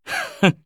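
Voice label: skill, Japanese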